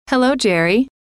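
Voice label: "hello", female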